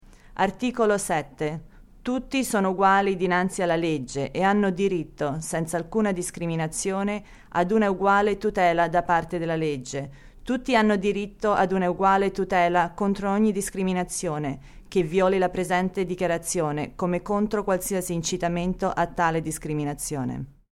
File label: Italian